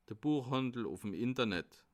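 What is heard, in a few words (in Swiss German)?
"buechhandel", Bas Rhin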